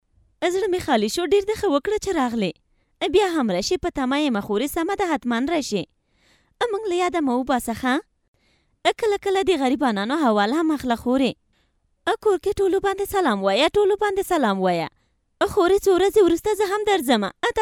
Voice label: dari female